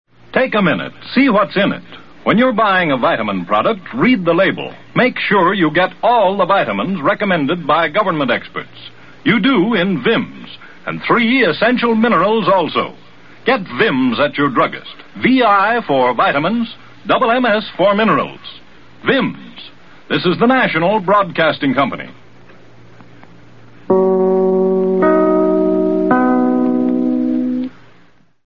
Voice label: Commercials Vitamins; Vimms